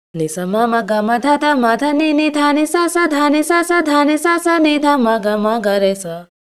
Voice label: voice, pitchshift; celemony melodyne